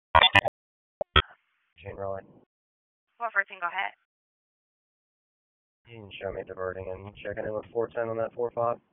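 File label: Police District Dispatch, p25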